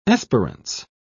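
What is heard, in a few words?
"esperance", British English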